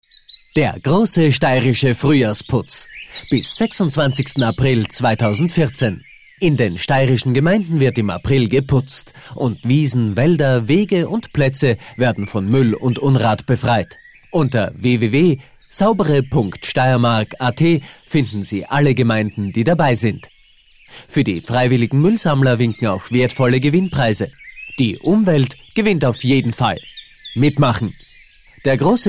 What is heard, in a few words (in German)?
Radiospot